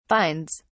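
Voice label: "finds", British English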